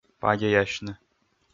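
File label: "падеясьны", Komi, male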